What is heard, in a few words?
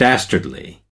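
"dastardly", US English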